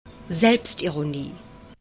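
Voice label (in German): "Selbstironie", German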